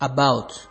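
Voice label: "about", Nigerian Pidgin, male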